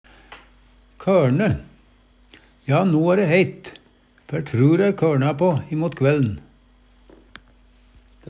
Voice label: køLne, Numedalsmål; Verb

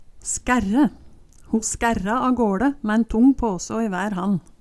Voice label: "skærre", Numedalsmål

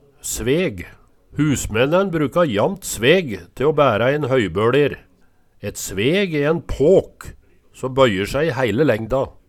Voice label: sveg, Numedalsmål

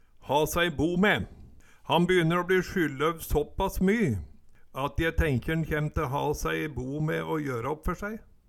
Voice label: "ha sæi bo me", Numedalsmål

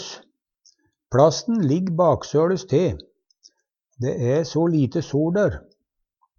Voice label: "baksøLes", Numedalsmål; PLassen ligg baksøLes te, før dæ æ so lite soL dær.; Adjektiv

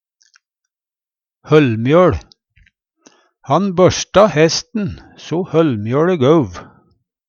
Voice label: hollmjoL, Numedalsmål

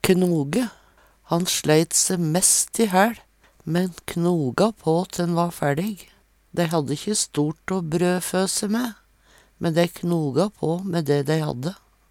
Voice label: "knoge", Numedalsmål

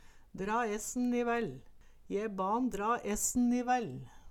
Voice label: "dra essen i væll", Numedalsmål; Je ban dra essen i væll

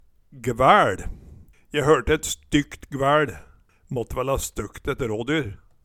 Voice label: gvæL, Numedalsmål

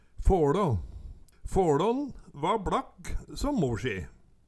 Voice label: fåLå, Numedalsmål; FåLån va bLakk som mor si

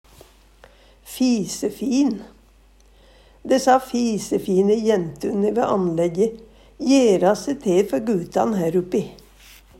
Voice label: "fisefin", Numedalsmål; Dessa fisefine jentun ne' ve' anlejje gjera se te før gutan her uppi.

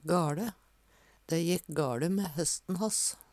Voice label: "gaLe", Numedalsmål; Dæ jekk gaLe mæ hesten hass.; galt, feil; Adverb

